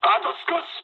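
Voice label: near waypoint mood med, speech japanese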